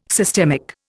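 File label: "systemic", Indian English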